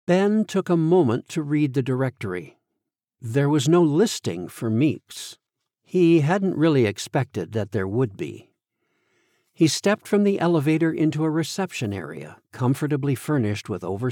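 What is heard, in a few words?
fantasy, 3rd POV, male female dialogue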